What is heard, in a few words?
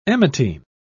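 "emetine", British English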